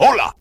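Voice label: "hola", Spanish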